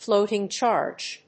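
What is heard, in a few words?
"Floating charge", English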